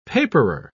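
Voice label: "paperer", British English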